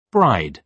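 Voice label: "bride", British English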